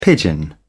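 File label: "pigeon", British English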